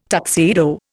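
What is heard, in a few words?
"tuxedo", Indian English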